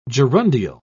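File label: "gerundial", British English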